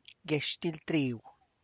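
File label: tlacochahuaya, Zapotec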